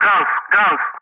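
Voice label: "guns", English; Ka-50; Wingman; Messages; Speech; DCS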